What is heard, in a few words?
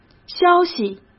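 "消息", Mandarin Chinese; chinese flashcards; hsk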